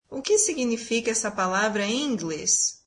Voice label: brazilian What does that word mean in English